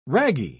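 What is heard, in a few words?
"ragi", British English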